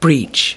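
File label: "breach", US English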